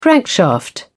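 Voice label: "crankshaft", English, British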